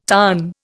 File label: "tarn", Indian